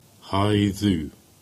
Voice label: "Blackhay", Cornish; place names